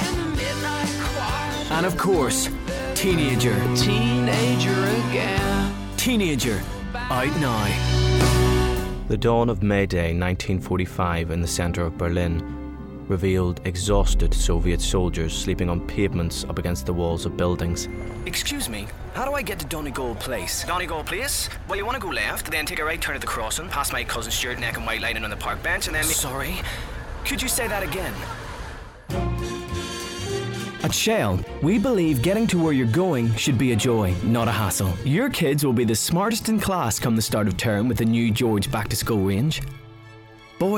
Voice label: male english irish northern irish accent compilation